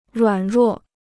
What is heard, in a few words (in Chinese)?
"软弱", Chinese